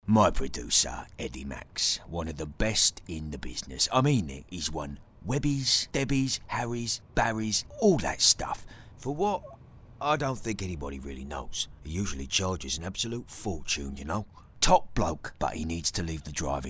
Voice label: Older cockney affable presenter; cockney | character